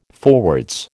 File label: "forwards", American